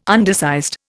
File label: "undersized", Indian